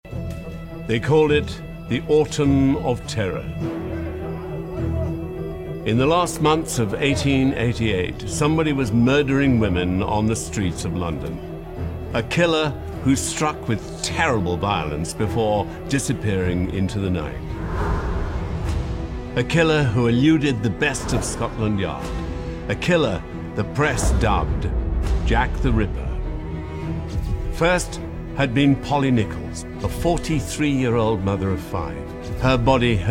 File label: Documentary